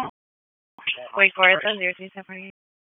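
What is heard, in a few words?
Wake Forest Police Dispatch, p25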